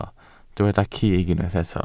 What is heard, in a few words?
"cig", welsh